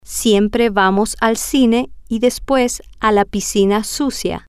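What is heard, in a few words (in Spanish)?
siempre Vamos Al Cine Y, Spanish, female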